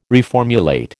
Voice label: "reformulate", American